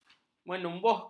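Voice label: "menumbuhkan", Malay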